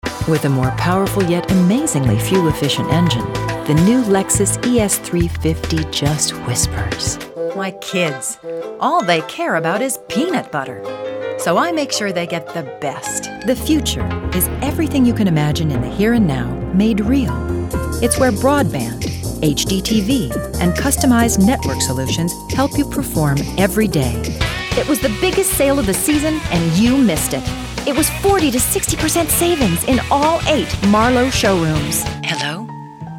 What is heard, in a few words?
Commercial